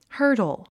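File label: "hurdle", English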